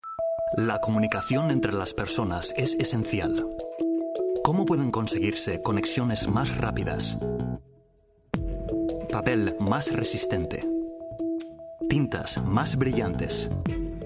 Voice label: spanish m commercial, spanish voice demos